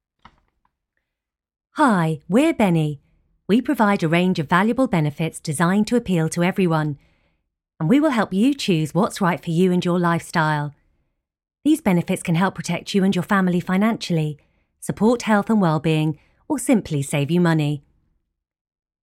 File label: Corporate Video